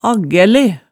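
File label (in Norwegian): "aggæle", Hemsedal dialect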